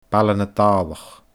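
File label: "Baile na Dàlach", Scottish Gaelic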